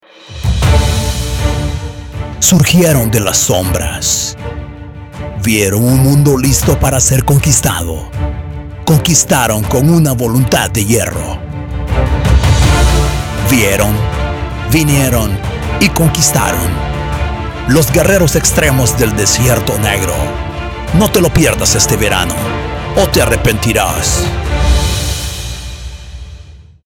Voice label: spanish latin american movie trailers